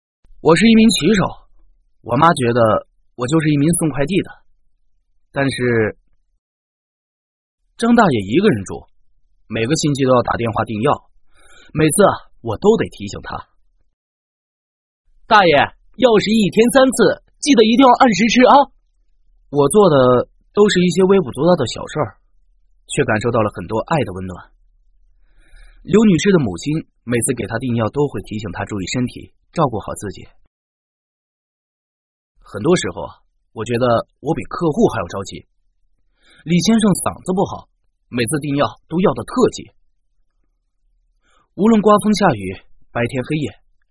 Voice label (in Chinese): 国语 男声 角色 骑手素人 自然说话 游戏多角色 素人